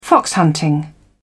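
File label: "fox hunting", English, British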